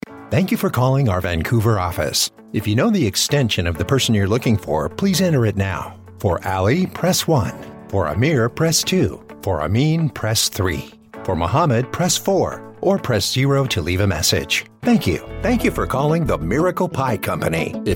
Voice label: IVR

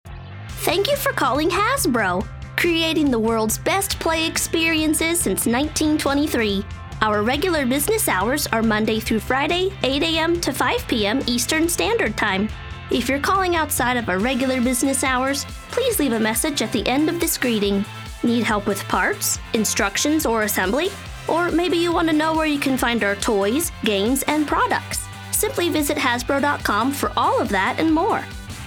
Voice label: Telephony

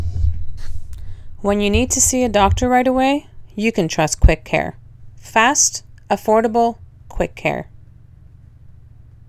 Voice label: Quick Care Commercial

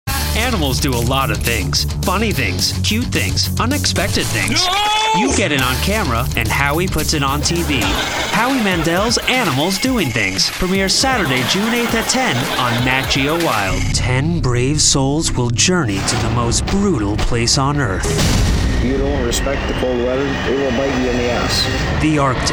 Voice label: PROMO

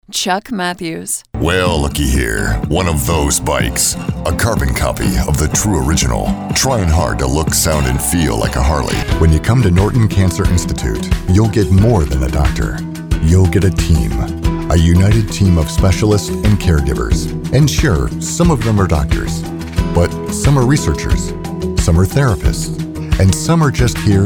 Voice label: Commercial demo

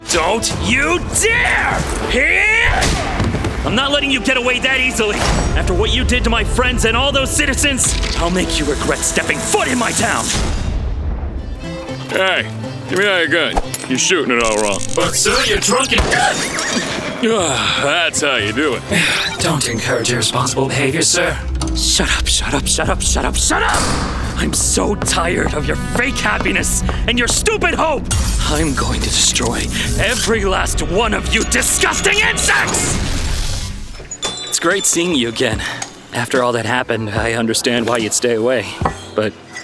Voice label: animation

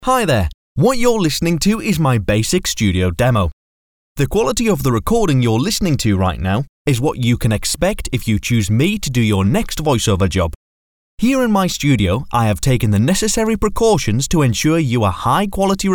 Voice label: Basic Studio Test

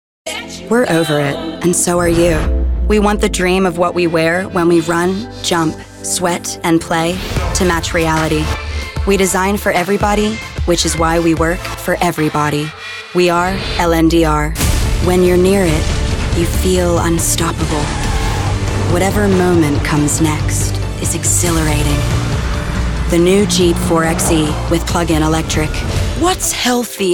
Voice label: Commercial

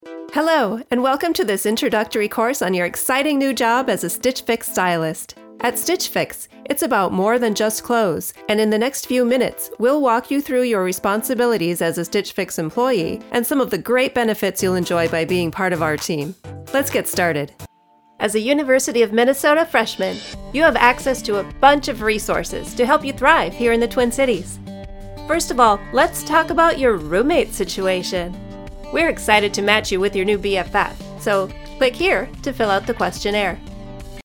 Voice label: eLearning adult

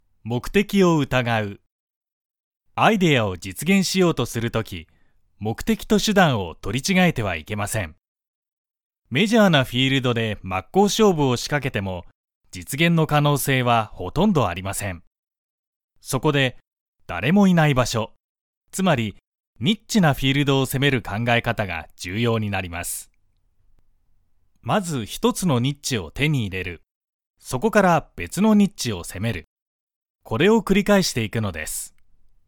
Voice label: audio book m

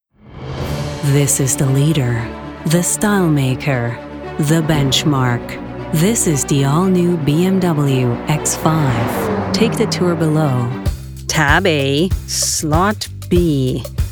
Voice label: EXPLAINER

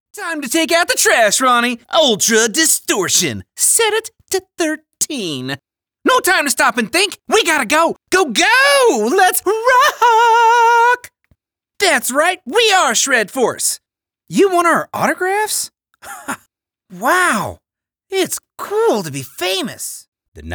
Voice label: Character

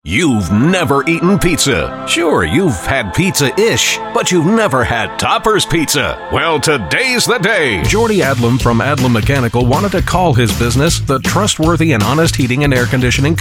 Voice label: Main Voiceover

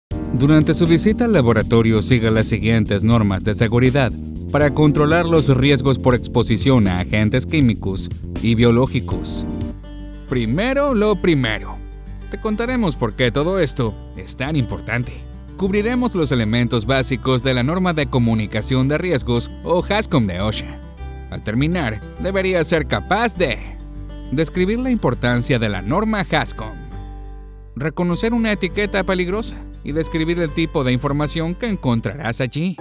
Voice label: Latin Neutral Spanish Elearning

